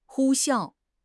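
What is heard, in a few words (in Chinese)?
"呼啸", Chinese; HSK6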